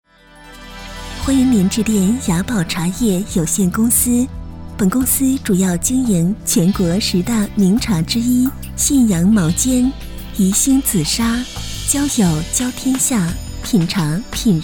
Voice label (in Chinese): 女, 彩铃